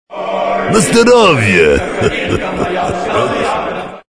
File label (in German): prost auf russisch